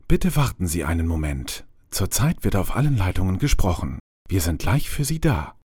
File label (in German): telefonsystem